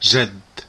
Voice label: grandfather in arabic